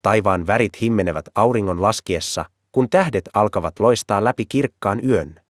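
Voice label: Finnish, Finland; iOS 26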